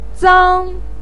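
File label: "zang1", Mandarin Chinese pinyin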